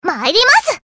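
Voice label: character voice Emotion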